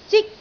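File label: "zik1", Cantonese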